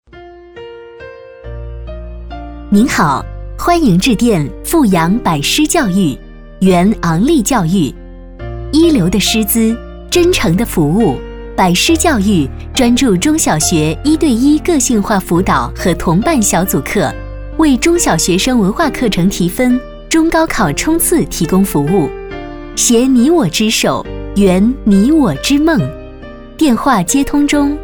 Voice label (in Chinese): 女, 彩铃; 百狮